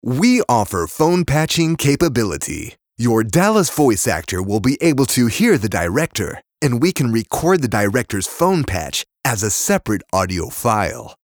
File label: Voice Actor Phone Patch; Dallas